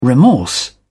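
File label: "remorse", English, British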